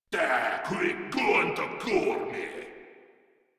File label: Cover Me, Grineer Male; Warframe